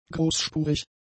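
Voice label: "großspurig", German; adjectives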